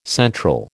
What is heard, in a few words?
"central", American